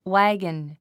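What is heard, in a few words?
"wagon", English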